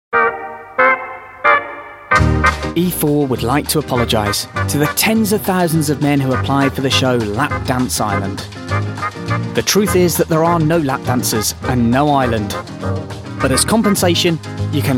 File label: continuity radio